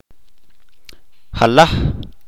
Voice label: "half", Chalchiteko

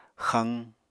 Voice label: "xaq", Apatani

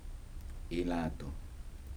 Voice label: "shoe", Kibembe

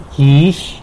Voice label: "gyizh", city person, tlacolula

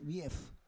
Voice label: "vief", Roon

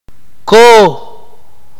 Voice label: "puma", Akateko

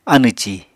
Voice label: "anẁ-ci", Apatani

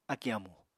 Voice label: "akì amù", Apatani

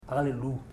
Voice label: "tall man", Ipili

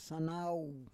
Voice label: "sanau", Roon

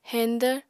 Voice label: "heñdvr", apatani

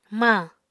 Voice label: "mañ", Apatani

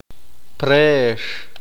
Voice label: "Preso", Q'eqchi'

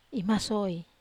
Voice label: "maso(i)", Wamesa

